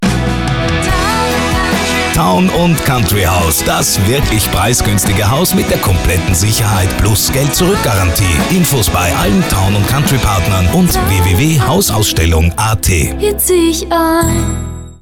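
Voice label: german austrian male commercial; voice demos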